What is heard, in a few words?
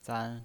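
"zan" Mandarin Chinese pinyin voice, first tone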